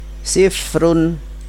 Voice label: "sifrun", Arabic; angka arabic 1-10